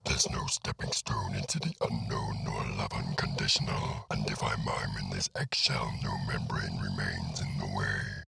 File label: Claw (deep voice)